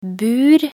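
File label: "bur", Norwegian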